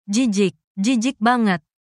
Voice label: indonesian phrases